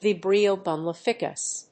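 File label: "Vibrio vulnificus", English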